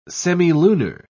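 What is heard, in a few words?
"semilunar", English, British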